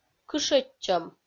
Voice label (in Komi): "кышӧдчӧм" Komi